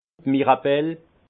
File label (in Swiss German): "mirabel", alsace; vin; mirabelle